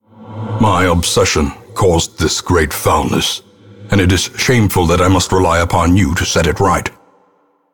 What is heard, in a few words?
narr town backstory; ancestor